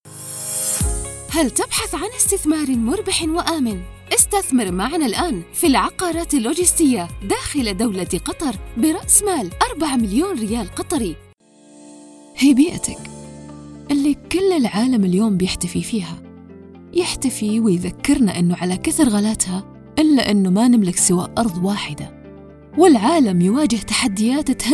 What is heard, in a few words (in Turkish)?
Arapca Kadin klasik arapca misir; kadin sesler; klasik arapca seslendirme